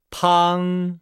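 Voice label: "pang", Chinese; Nihao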